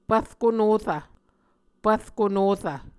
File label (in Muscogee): "pvrko norv", Muscogee